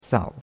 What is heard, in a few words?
"sao3", chinois; Cours-de-Chinois